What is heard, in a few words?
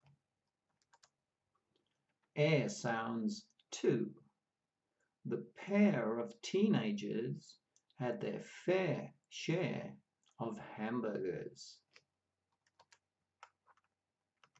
Ere sounds, pronunciation of australian english